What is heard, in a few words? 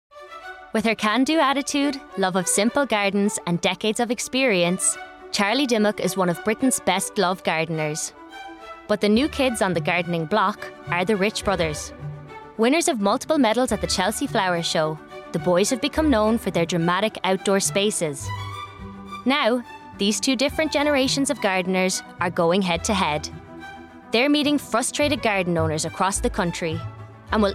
Documentary, irish voice over artists female